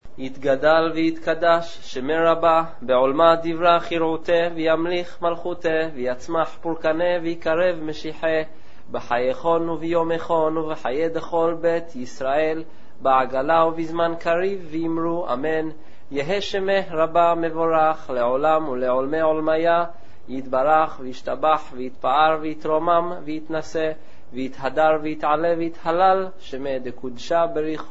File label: Mourners Kaddish Sefard